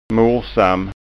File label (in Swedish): "morsam", skanska